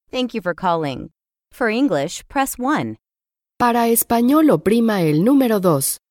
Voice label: intro eng spa